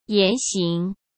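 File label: "言行", Mandarin Chinese; hsk; chinese-flashcards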